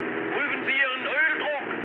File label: damaged oil, mood high, speech ge; War Thunder